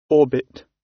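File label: "orbit", British English; Space, the planets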